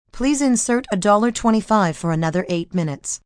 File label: Insert Dollar, Telephone operator, female, recorded